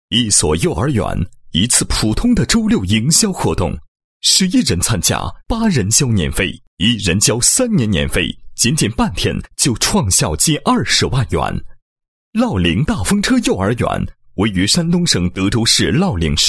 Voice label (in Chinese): 男 学校; 跨世乐陵大风车幼儿园加盟英雄榜